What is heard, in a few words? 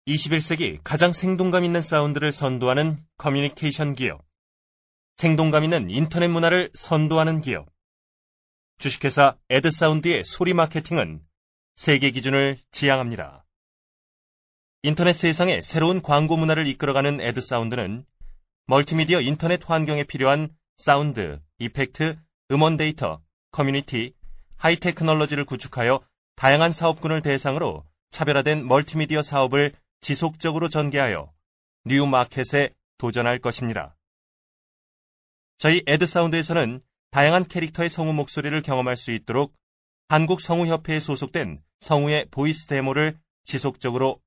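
Korean, male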